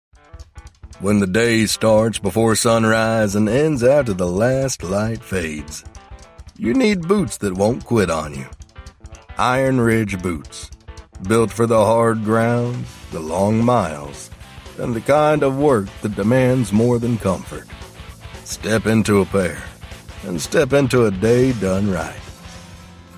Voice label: commercial promo